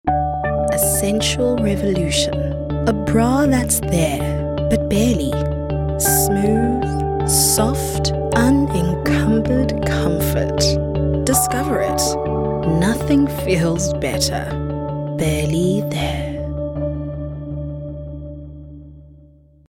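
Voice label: English Soft Sell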